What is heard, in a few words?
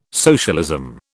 "socialism", British